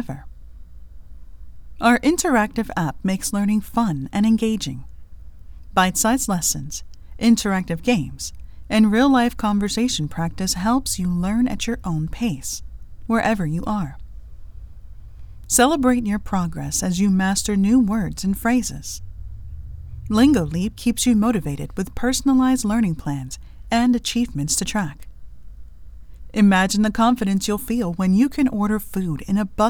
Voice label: Language App Commercial